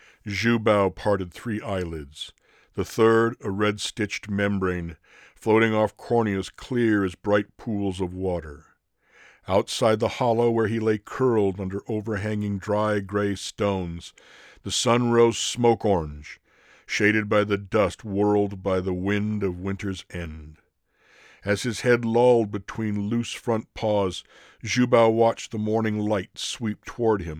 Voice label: Snow Leopard Reading